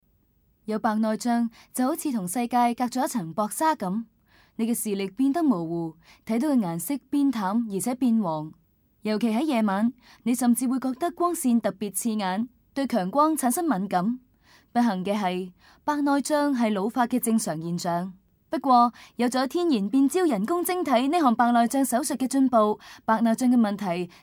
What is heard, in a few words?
CANTONESE female